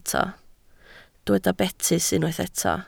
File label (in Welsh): "betys", Welsh